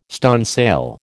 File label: "stunsail", American